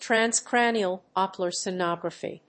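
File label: "Transcranial Doppler Sonography", English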